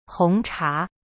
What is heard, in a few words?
"红茶", Chinese; hong cha